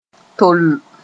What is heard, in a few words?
"tol", Mooré